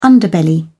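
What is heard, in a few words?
"underbelly", British English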